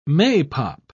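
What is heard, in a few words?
"maypop", British English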